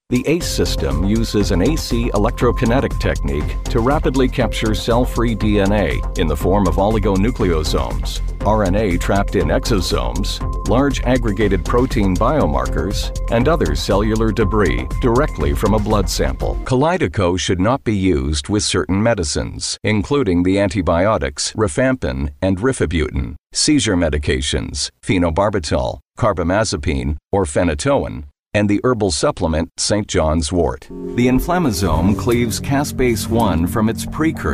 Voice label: english international others; Voz masculina "neutral en inglés norteamericano" (sin acento regional).